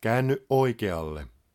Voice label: Turn Right, Finnish, male; Opas